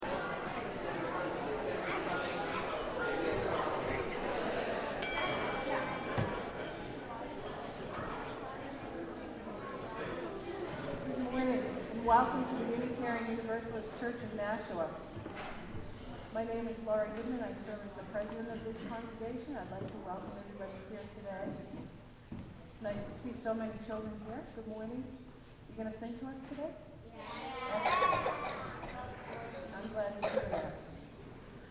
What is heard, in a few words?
announcements